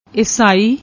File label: "essai", Punjabi